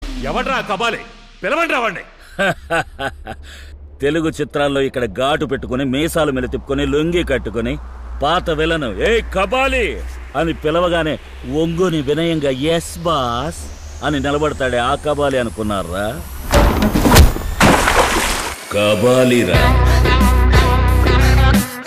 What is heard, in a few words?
Dialogue Ringtone; Kabali